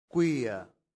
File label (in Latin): "quia", latin; santillana